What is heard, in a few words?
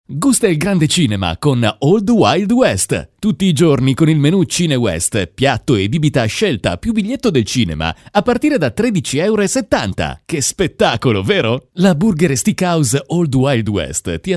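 Italian, male, commercial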